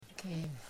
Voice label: "fire", Kamba